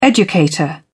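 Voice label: "educator", British English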